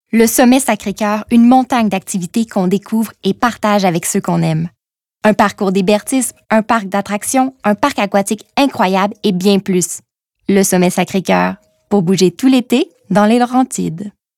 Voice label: Sympathique Articulation exceptionnelle; Quebecois natu; Le sommet Sacre Coeur

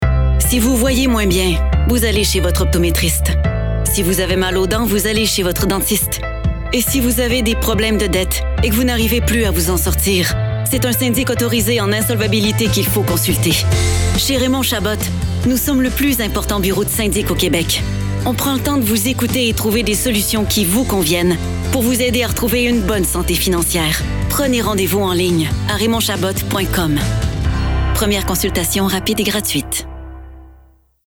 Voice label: rassurante affirmée déterminée, Bienveillante - Affirmée - Québécois soutenu; Raymond-Chabot

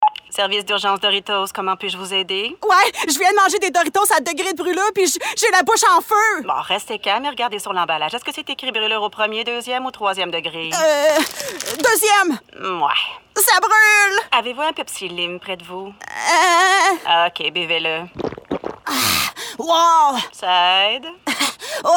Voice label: FR Qc Voix apeurée comique sur le souffle; Doritos

